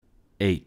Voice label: "eight", English

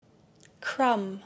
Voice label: "crumb", English

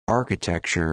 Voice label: "architecture", English

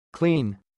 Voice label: "CLEAN" verb

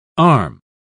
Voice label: "arm", English

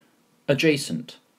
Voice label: "adjacent", English

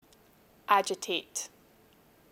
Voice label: "agitate", English

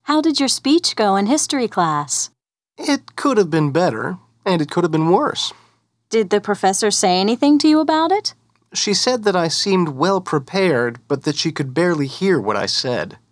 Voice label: dialog

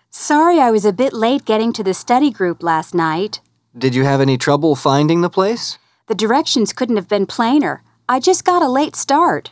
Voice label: dialog

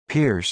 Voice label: "Pierce", English

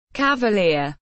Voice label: "cavalier", English

